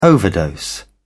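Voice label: "overdose", British English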